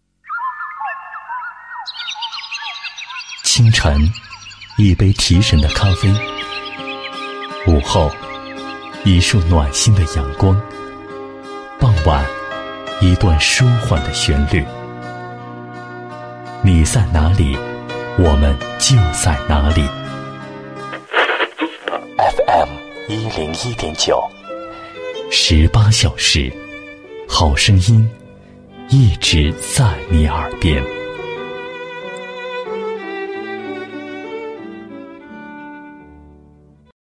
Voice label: 男 台呼 FM101.9电台 高档温馨, 国语男声配音, 大气浑厚

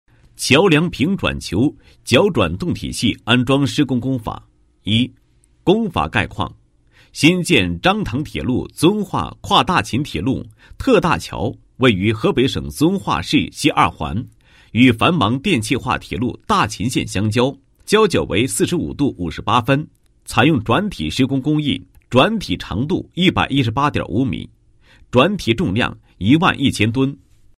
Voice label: 男 工程介绍; 桥梁平转球铰转动体系安装施工工法

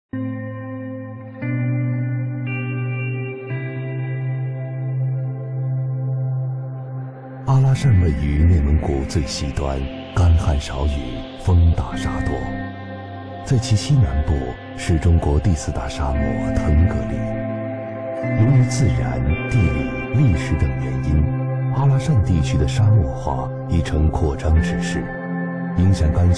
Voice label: 男 公益宣传片 沙漠环保之旅 自然讲述风格; 阿拉善沙漠环保之旅

